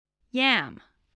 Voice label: "yam", voice, english